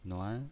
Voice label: "nuan2", pinyin